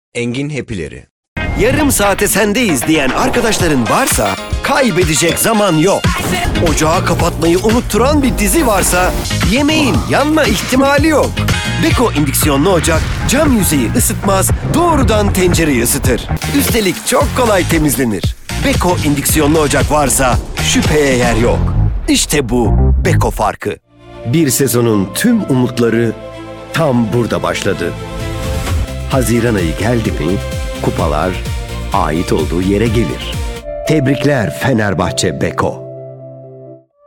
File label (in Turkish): turkce erkek